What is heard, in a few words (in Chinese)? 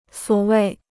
"所谓", Chinese